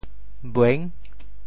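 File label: "bueng6", Teochew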